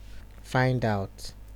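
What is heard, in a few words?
"find out", Nigerian Pidgin, male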